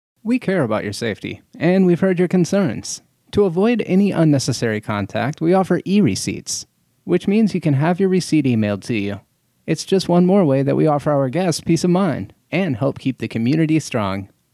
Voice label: PSA E Receipts

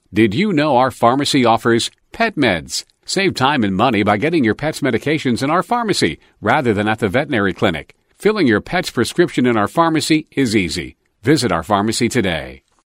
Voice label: Pharmacy Pet Meds Male

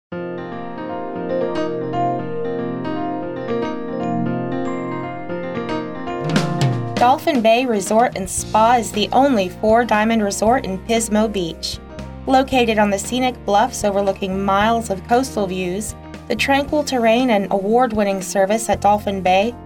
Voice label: Spa Message Female

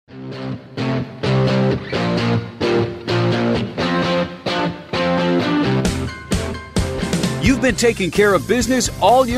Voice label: Labor Day Male

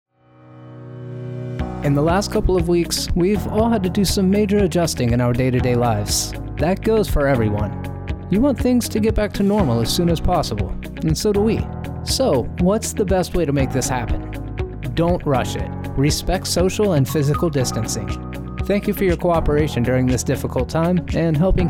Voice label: PSA Physical Distancing with MUSIC

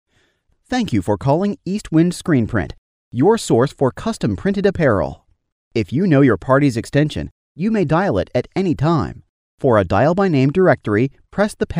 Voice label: Retail Service Phone Prompt Male

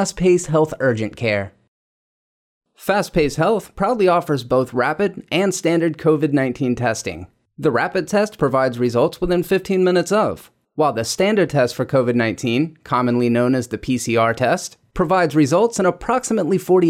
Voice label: Medical Prompt Male

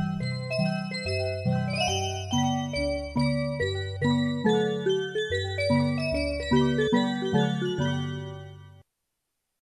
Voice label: Ice Cream, Male; BlueBunny

